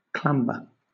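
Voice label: "clamber", English, British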